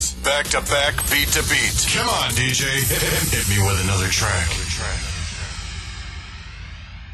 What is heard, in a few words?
DJ Sweep